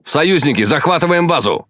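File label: Russian Speech Quick Commands Enemy Base; World Of Warships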